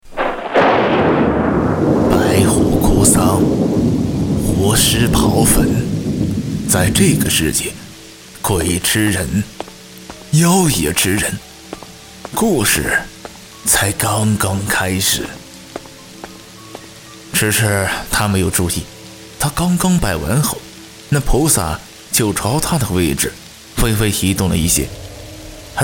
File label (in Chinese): 旁白诡异世界